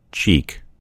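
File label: "cheek", American English; gcompris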